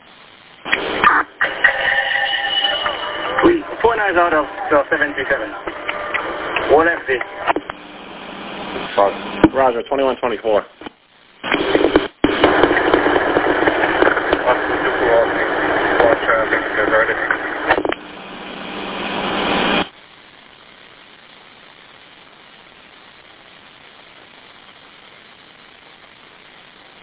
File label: Police Radio